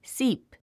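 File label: "seep", English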